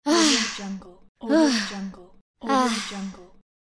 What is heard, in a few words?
satisfied teenage girl